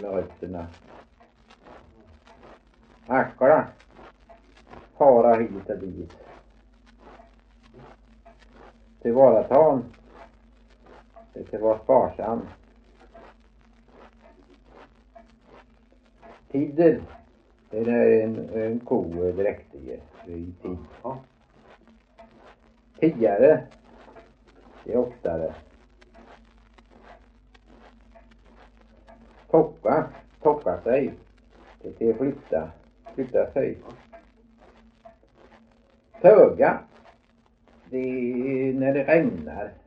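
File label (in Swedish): Veddige Halland, Svenska sagor dialekter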